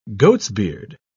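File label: "goatsbeard", British English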